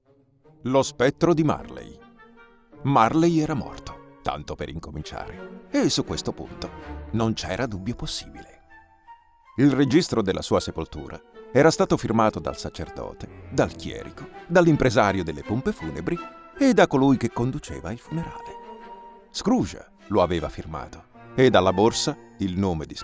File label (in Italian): lettura con base; Canto di Natale; Audio Book